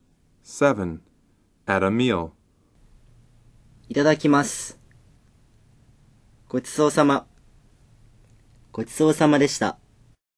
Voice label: Dialog